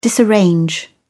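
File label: "disarrange", British English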